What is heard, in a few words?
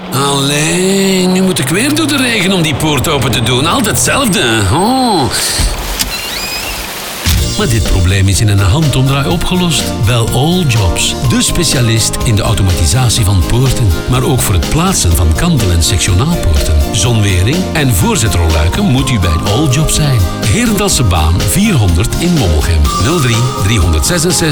Radiospot